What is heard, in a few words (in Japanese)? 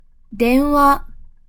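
"電話", Japanese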